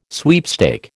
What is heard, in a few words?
"sweepstake", American